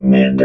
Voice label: "mid", vox robot

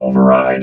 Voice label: "override", vox robot

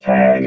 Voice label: "tag", robot

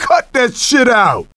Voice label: "Knock It Off", radio

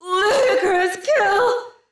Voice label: "ludicrous kill", female

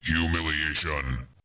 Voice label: "humiliating defeat", male

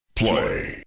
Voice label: "play", male

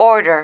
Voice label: "order", female

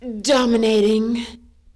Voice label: "dominating", female

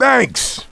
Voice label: "Thanks", radio

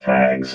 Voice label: "tags", robot

